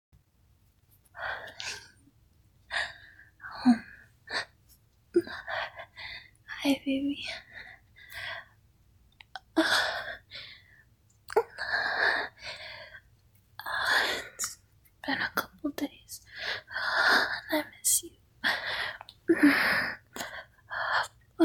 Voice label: F4M Voicemail at 1 am, needy, phonecall, improv, wet noise